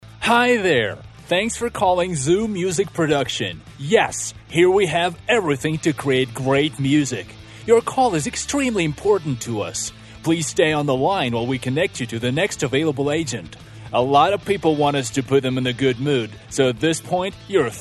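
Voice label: Ukrainian Russian, male, English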